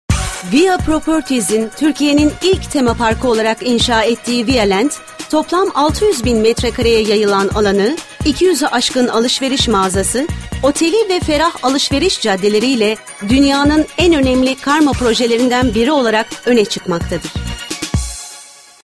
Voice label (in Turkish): tanitim, tanitim filmi seslendirme